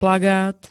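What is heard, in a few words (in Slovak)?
"plagát", Slovak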